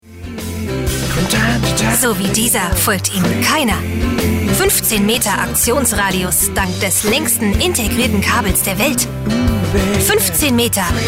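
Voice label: German, female, commercial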